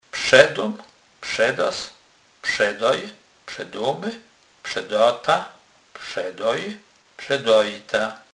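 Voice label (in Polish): "przedac", Kurpie dialect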